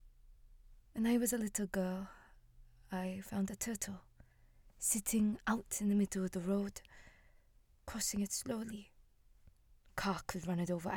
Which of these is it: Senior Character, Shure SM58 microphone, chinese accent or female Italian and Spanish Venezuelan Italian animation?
chinese accent